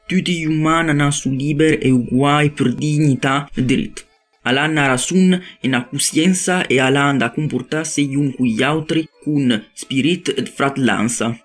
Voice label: udhr piedmontese